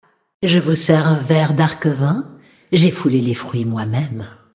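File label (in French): Female Flirt, voix françaises; Sacrenuit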